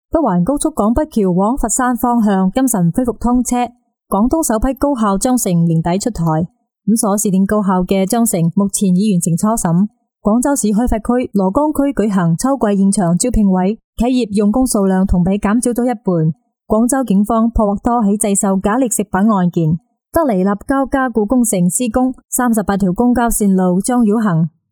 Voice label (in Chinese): 英女 新闻 粤语